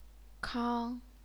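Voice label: "kang", Chinese, first tone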